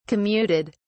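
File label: "commuted", British English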